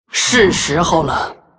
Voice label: Hero female demon hunter morphed what zh